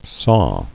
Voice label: "saw", english

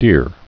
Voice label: "deer", English

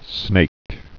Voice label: "snake", English

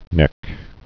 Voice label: "neck", English

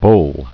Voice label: "bowl", english

